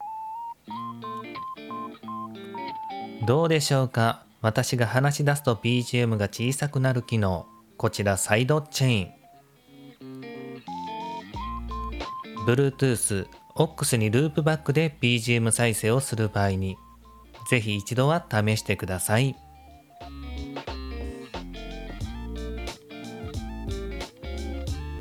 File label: maono g1 neo